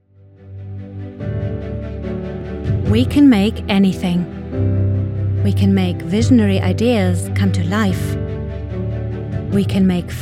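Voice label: English Commercial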